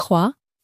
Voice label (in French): "trois", French